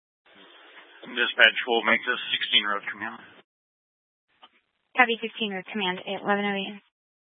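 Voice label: Incident Tac 2, Scanner audio recording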